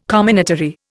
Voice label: "comminatory", Indian

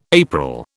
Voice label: "April", British

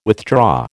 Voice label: "withdraw", American

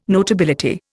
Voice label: "notability", Indian

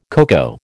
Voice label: "coco", American

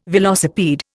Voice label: "velocipede", Indian

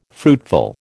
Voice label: "fruitful", American

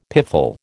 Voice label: "piffle", American

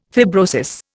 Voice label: "fibrosis", Indian

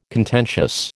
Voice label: "contentious", American

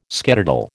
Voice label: "skedaddle", American

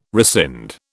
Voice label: "rescind", British

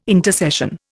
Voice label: "intercession", Indian

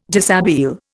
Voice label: "dishabille", Indian

